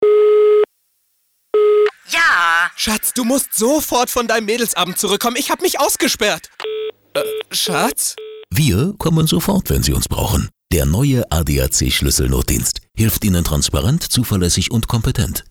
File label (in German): Radiospot; ADAC